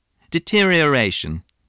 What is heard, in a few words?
"deterioration", english spelling